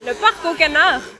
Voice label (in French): le parc aux canards, prononciation